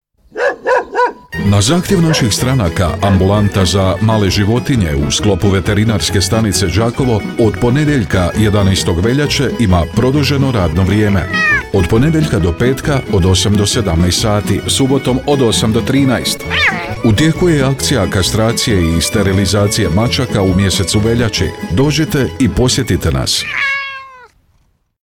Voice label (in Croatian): Ambulanta reklama